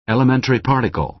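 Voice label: "elementary particle" American English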